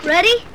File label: "ready" kid; Voice; introductions